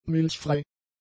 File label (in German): "milchfrei", German; adjectives